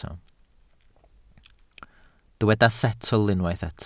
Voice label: "setl", Welsh

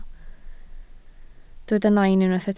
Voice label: "nain", Welsh

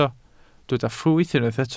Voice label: "ffrwyth", Welsh

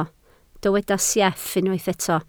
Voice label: "sieff", Welsh

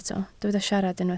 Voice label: "siarad", Welsh

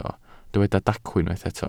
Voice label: "dacw", Welsh

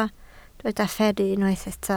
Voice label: "fferru", Welsh

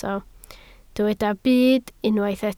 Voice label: "byd", Welsh

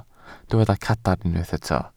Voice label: "cadarn", Welsh

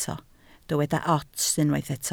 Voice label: "ots", Welsh

